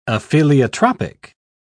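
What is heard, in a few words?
"apheliotropic", British English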